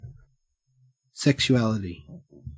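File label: "sexuality", Australian English; pronunciations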